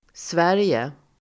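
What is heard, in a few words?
"sverige", Swedish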